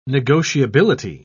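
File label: "negotiability", British English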